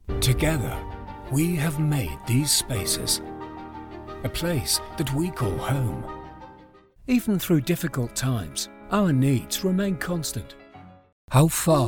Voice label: english british commercial; United Kingdom; professional UK based VO artist